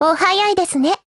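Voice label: HELLO Japanese; Kord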